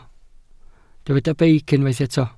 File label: "beic", Welsh